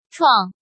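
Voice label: "Chuàng", Chinese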